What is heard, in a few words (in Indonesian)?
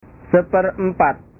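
"seperempat", Indonesian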